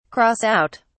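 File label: "cross out", British English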